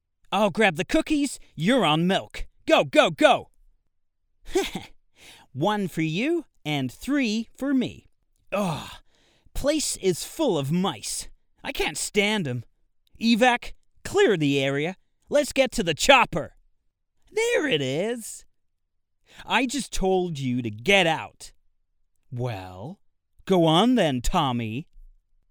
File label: animation US